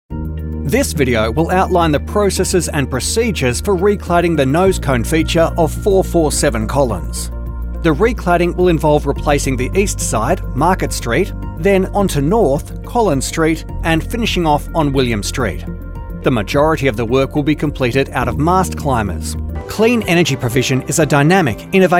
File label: Corporate; EM Voices